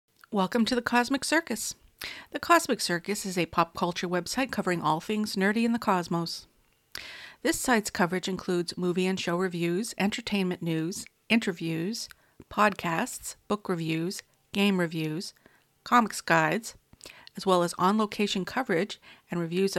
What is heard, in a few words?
fifine mic test